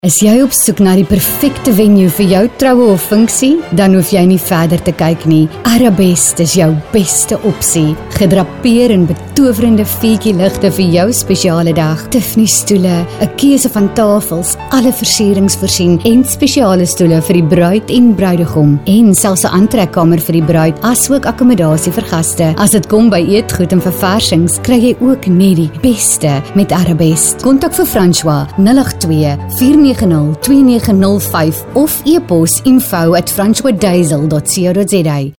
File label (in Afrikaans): Afrikaans Soft Medium Sell; South Africa